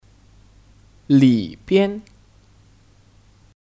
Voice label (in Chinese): "里边", Lǐbian; Di dalam(Alternatif dari 里面 Lǐmian)